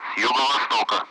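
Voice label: Speech, Russian; Allied Flight; DCS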